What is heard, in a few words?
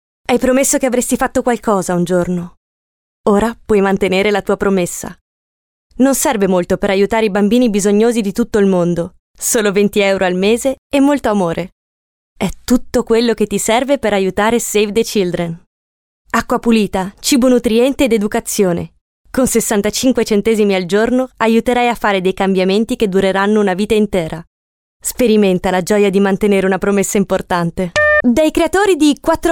italian voice over female commercial